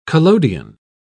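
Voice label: "collodion", British English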